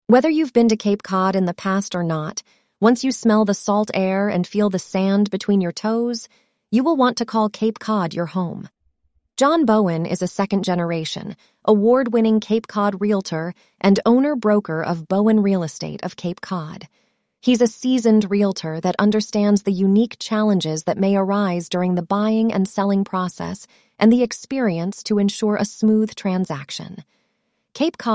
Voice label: synthesized audio